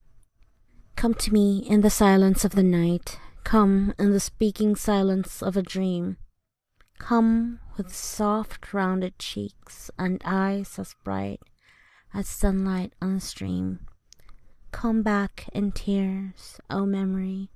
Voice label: F, Romantic, Poetry; Echo; Poetry by Christina Rossetti; 28 Days of Love Poetry